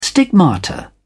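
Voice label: "stigmata", British English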